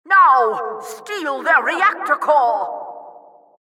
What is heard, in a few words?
announcer capture flag; TF2